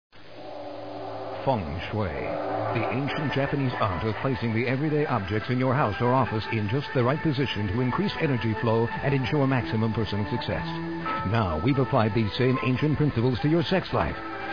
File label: Commercials schwing